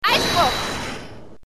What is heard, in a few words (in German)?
"Einspruch", German